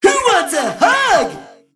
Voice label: Male Mech Play